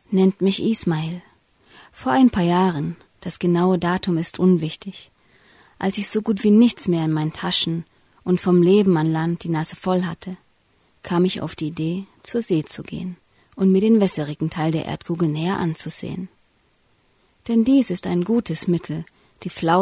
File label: German, F, Narration